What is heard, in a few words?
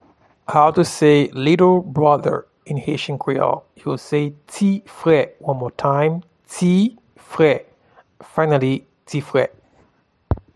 Little brother in Haitian Creole, Ti fre